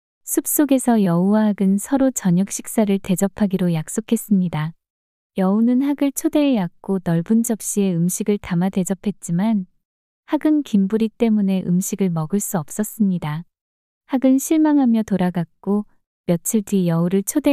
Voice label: 이야기 여자; 여우와 학

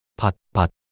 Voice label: "팥밭" 낱소리 남자

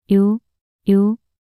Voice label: "요요" 낱소리 여자

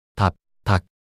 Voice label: "답닭" 낱소리 남자

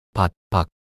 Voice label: "밭밖" 낱소리 남자

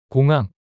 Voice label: "공항" 단어 남자

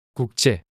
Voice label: "국제" 단어 남자

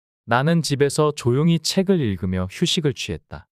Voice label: 나는 집에서 조용히 책을 읽으며 휴식을 취했다 문장 남자

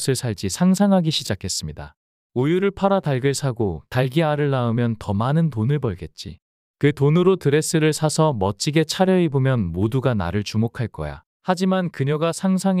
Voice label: 우유짜는 소녀와 들통 이야기 남자

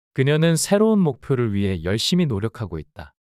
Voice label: 문장 남자; 그녀는 새로운 목표를 위해 열심히 노력하고 있다

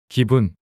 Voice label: "기분" 단어 남자

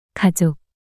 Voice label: "가족" 단어 여자

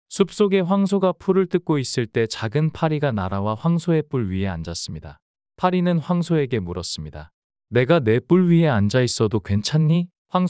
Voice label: 이야기 남자; 황소와 작지만 중요한 존재

